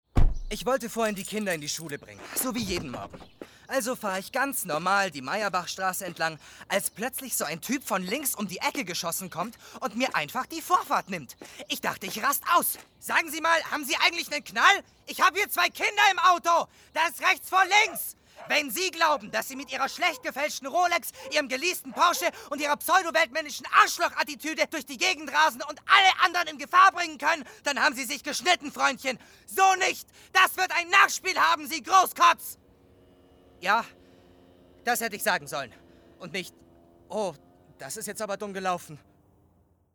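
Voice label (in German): Sprachprobe wütend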